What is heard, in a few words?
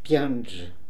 "Pianje", pronounciations; Fourth Way; Beelzebub